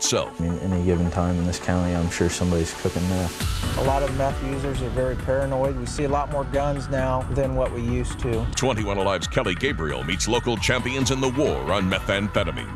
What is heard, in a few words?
Promos, Compelling News Image; TV; 21 Alive WPTA TV